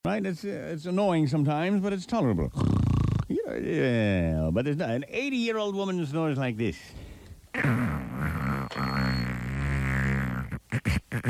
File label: Talk - Grandma's Snoring; Greaseman